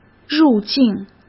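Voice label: "入境", Mandarin Chinese; hsk; chinese-flashcards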